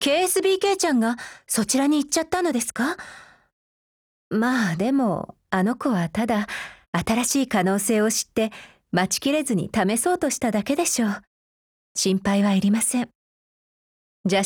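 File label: DIALOGUE, Japanese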